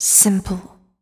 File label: fem spo, Vocals